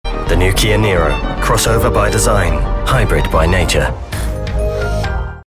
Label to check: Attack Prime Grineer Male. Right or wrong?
Wrong